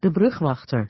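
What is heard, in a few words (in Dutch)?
"de brugwachter", Dutch; Natuur samenvatting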